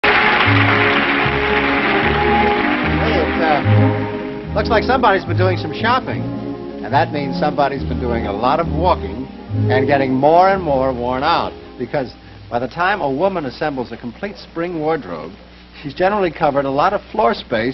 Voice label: Coca Cola Commercial Classic Vintage TV